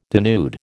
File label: "denude", American